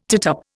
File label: "tittup", Indian